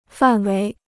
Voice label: "范围", Chinese

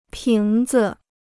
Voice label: "瓶子", Chinese

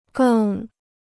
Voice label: "更", Chinese

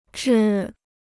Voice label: "制", Chinese

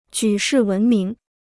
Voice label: "举世闻名", Chinese

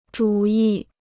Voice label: "主义", Chinese

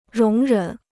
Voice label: "容忍", Chinese

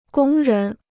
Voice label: "工人", Chinese

